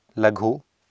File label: "laghu", Sanskrit